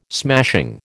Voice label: "smashing", American